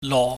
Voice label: "lo", Chinese

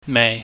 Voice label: "mei", Chinese

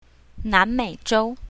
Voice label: "nan2mei3zhou1", mandarin

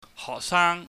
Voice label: "hohksaang", Cantonese

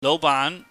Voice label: "louhbaan", Cantonese

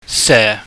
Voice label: "se", pingyam

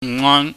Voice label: "nghon", Cantonese

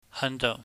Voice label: "handou", Cantonese

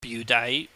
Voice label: "biudai", Cantonese

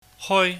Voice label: "hoi", chinese

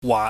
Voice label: "waat", pingyam

